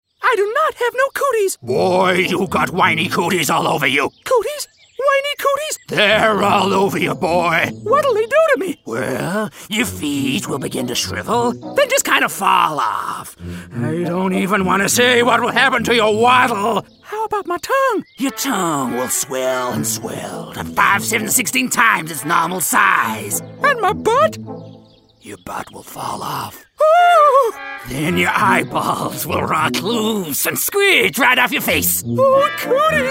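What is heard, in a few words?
Characters - US characters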